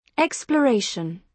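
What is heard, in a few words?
"exploration", British English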